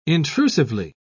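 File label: "intrusively", English, uk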